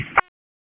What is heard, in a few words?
Police Tac, p25; wake